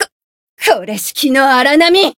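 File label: Voice encounter fear, Japanese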